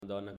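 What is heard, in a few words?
"Donna", Italian, male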